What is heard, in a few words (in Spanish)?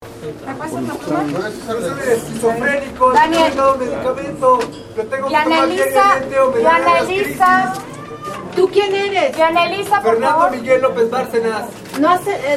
hombre; presas del penal de santiaguito; eschizophrenico